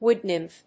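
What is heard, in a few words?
"wood nymph", English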